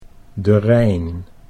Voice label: "de Rijn", Dutch

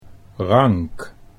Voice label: "rank", Dutch

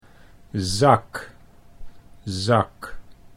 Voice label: "zak", Dutch

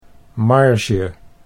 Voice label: "marge", Dutch

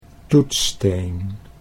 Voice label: "toetssteen", Dutch

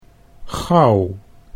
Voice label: "gauw", Dutch

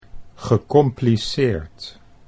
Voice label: "gecompliceerd", Dutch

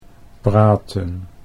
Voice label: "praten", Dutch

